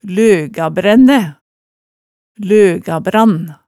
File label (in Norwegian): "løgabrænnæ", hemsedalsmaalet tale